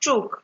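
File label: "chuk", Nigerian Pidgin, female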